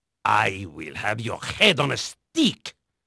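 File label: French male, voice; Pirates Of The Caribbean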